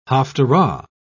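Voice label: "haftarah", British English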